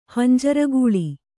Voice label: "ಹಂಜರಗೂಳಿ", kannada